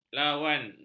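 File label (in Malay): "lawan", Malay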